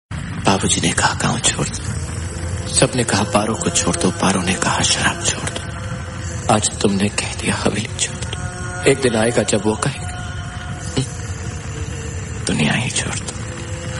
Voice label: old dialogue; srk